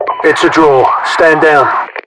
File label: "draw", UK; english; voiceovers; mpvoice